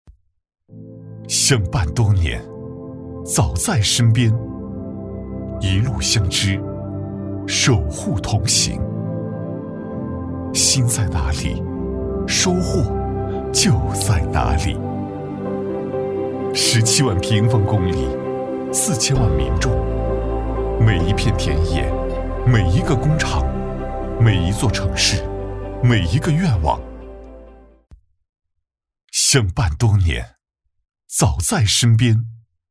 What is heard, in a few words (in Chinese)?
男 银行宣传; 银行宣传样音; 贵州银行宣传